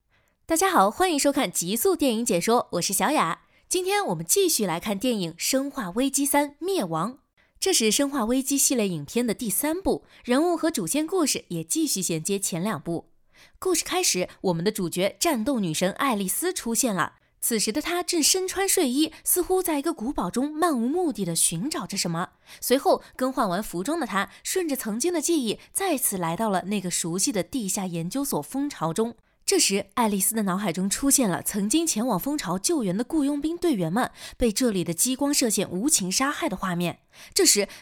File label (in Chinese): 女配 电影解说; 生化危机九